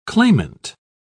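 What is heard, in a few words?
"clamant", British English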